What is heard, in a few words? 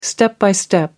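"step by step", British English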